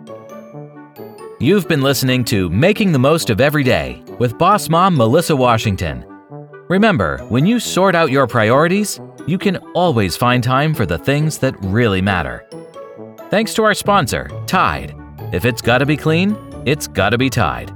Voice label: Podcast Intro Outro, Outros